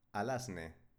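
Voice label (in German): "alasne", baskische Mädchennamen, Aussprache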